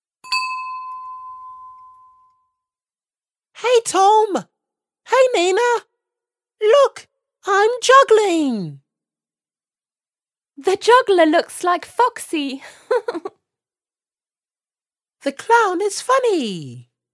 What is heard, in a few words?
At the circus Dialogue